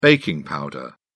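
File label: "baking powder", British English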